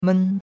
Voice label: "men1", chinois; Cours-de-Chinois

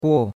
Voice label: "guo4", chinois; Cours-de-Chinois

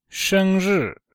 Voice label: "sheng1ri4", chinois; Cours-de-Chinois

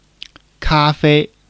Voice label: "ka1 fei1", Chinese

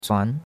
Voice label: "zuan2", chinois; Cours-de-Chinois

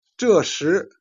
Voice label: "jian", Chinese

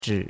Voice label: "zhi3", chinois; Cours de Chinois

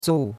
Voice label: "zou4", chinois; Cours de Chinois

